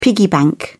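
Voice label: "piggy bank", British English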